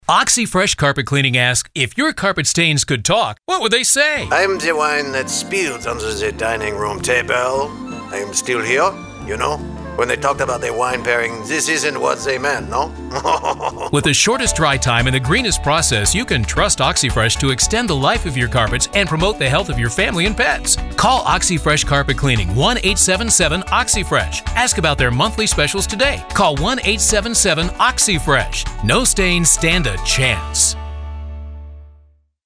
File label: ACCENT French Guy